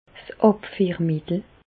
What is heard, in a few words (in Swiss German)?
"abfiermittel", Alsace, Bas Rhin; laxatif; medecine